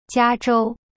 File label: "加州", Chinese; jia zhou; cyberchinese